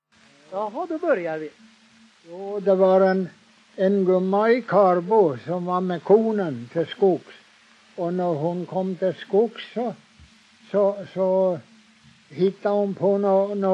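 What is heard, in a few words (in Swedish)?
Huddunge Uppland, dialekter; Folkminnen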